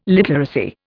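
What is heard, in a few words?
"literacy", Indian English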